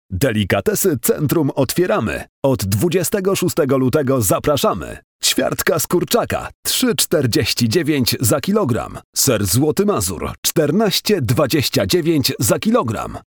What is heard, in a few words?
polish male